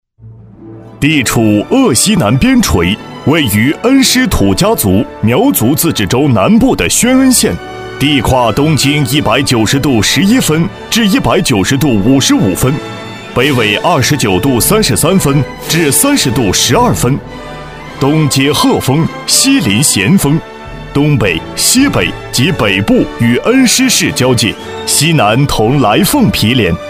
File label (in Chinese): 男 专题 宣传片